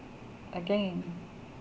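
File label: "again", Canadian female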